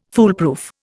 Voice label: "foolproof", Indian